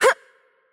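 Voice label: Attack Light, Polish; VO; pl PL; bloom minigame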